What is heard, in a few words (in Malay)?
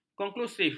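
"konklusif", Malay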